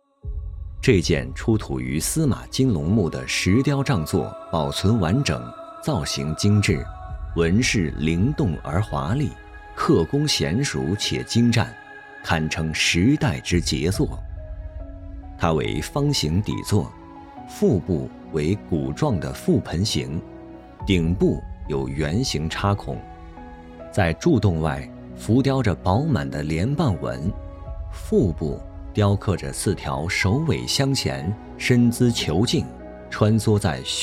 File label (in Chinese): 男 专题 大气 朴实 文物专题片